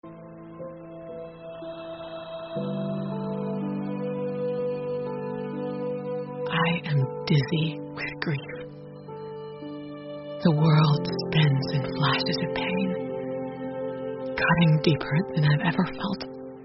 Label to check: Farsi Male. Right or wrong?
Wrong